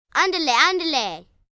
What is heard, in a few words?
Spanish Hurry Up, Calls, 1 girl, 9 yrs., Spanish; Talking; VoiceBox